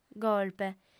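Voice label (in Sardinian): "golpe", Sardinian, female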